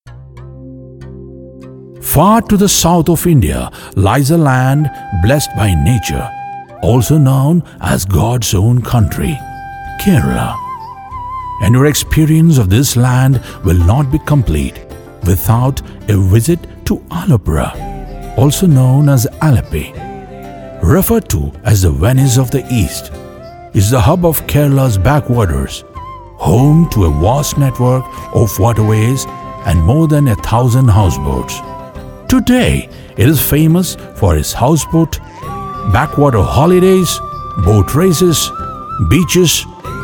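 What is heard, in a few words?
docu english, american english; kerala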